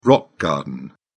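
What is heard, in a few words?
"rock garden", British English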